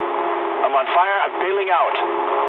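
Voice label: English on fire mood low; War Thunder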